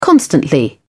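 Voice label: "constantly", English, British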